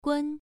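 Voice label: "gun", Mandarin Chinese, first tone, pronunciation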